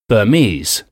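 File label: "burmese", English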